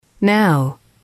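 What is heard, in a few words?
"now", English, female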